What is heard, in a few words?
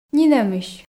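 "нинӧмысь", Komi, female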